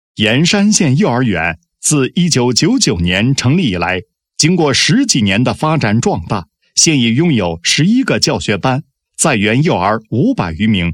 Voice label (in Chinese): 男 学校; 科学优化办园 圆幼教腾飞梦